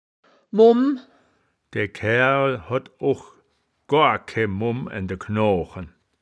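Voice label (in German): "mumm", Wittlicher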